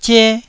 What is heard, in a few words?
"zie1", Taiwanese